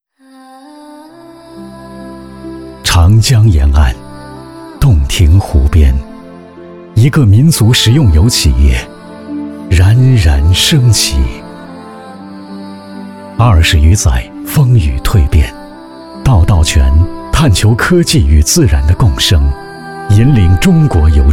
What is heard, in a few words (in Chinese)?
娓娓道来; 道道全央视